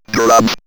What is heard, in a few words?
Robot Drums, robot vox; X-Static Goldmine